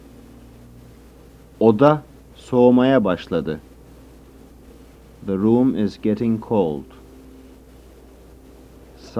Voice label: soft g sound within sentence, Turkish; The next sound file is just the word soğumaya spoken to show the soft 'g' sound.